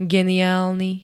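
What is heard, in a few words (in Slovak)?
"geniálny", Slovak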